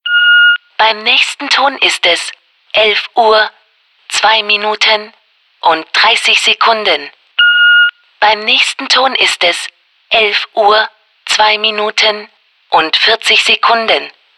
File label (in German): Zeitansage; JobsInTown